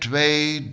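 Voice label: "tvee", Low German, male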